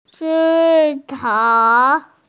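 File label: "si1kao3", Mandarin Chinese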